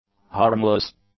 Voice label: "harmless", English; adjectives